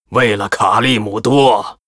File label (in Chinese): Hero demon hunter warcry